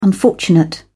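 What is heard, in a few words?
"unfortunate", English, uk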